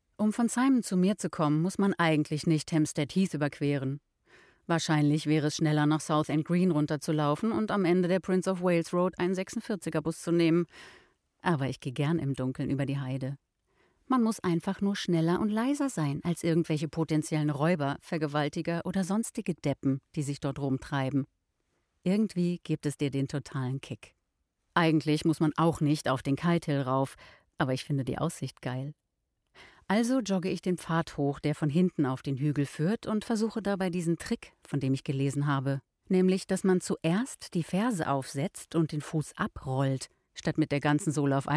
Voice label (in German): Hoerbuch